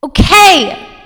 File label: angry